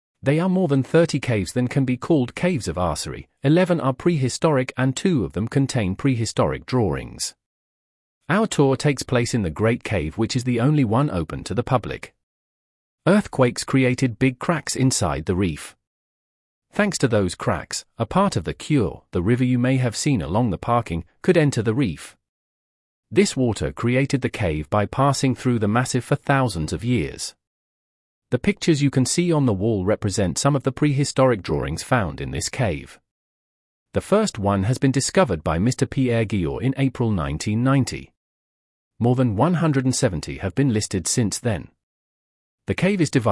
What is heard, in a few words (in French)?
salle du grand desert anglais